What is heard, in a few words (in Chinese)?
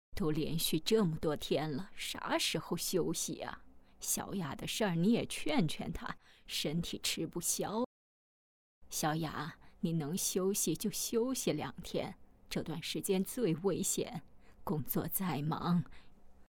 角色母亲60岁; 都连续这么多天了